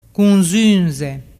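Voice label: "cunzunze", Vernazzese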